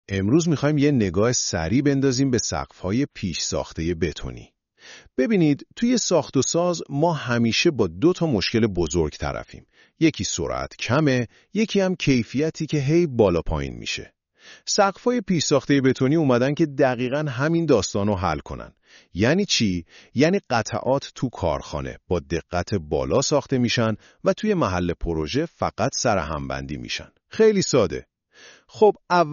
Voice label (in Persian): پادکست صوتی; سقف پیش ساخته بتنی; precast concrete roof price